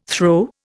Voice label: "throe", Indian